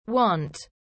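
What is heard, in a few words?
"want", Australian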